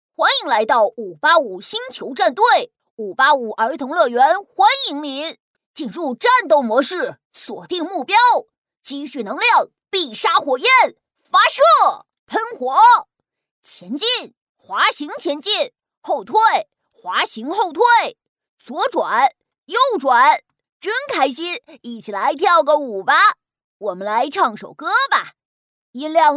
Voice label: 男童游戏

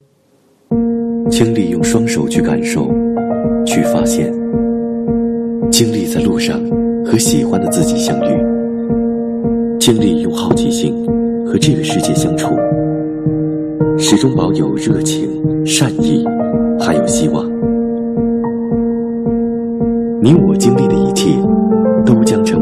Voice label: 广告稳健成熟品质; 斯柯达

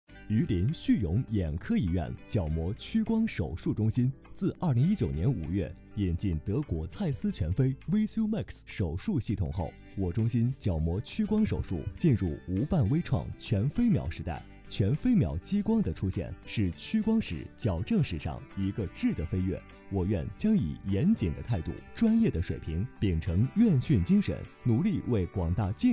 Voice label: 时尚大气医院广告

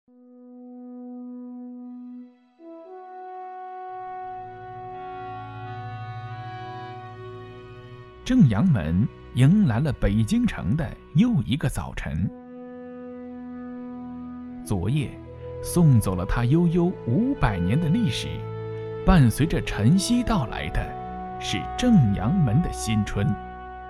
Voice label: 纪录片; 正阳门

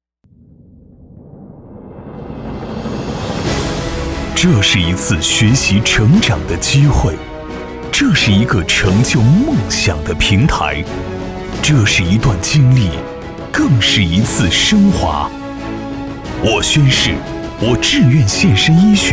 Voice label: 宣传片; 南华医院住培宣传片

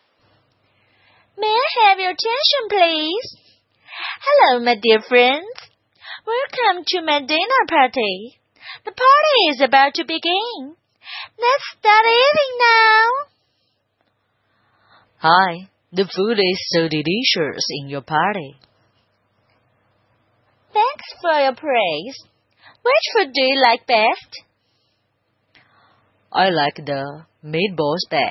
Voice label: 英语对话

